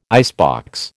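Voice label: "icebox", American English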